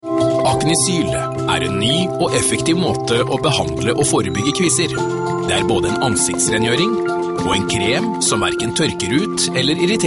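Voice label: Norwegian commercial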